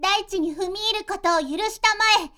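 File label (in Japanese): magi girl daichinifumiirukotowoyurusitamae, Japanese; magi syozyo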